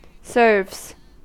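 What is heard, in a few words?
"serves", American English; pronunciations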